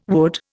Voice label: "would", Indian